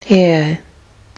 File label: vowel in square, Kiwi English